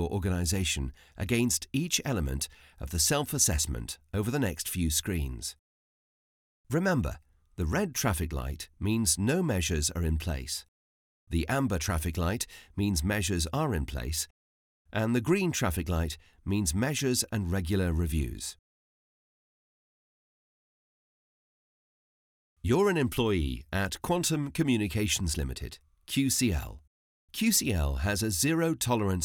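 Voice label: e-learning, British English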